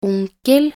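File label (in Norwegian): "onkel", Norwegian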